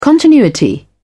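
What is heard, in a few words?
"continuity", British English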